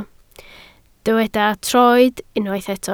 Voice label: "troed", Welsh

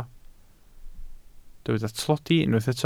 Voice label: "tlodi", Welsh

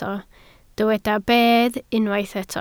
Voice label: "bedd", Welsh

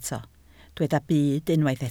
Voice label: "byd", Welsh

